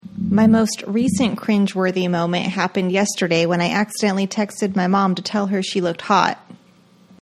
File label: cringy